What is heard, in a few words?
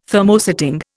"Thermosetting", Indian